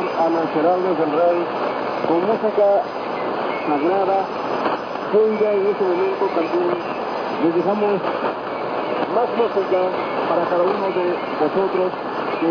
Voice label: 3870 Radio La Voz de la Esperanza; Radio Adventista Mundial